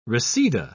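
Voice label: "reseda", British English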